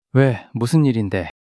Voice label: fluster